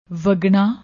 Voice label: "vagna", Punjabi; ਵਗਣਾ